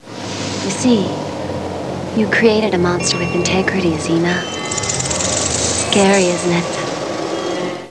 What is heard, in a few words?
call scary